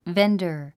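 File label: "vendor", English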